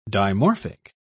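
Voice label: "dimorphic", British English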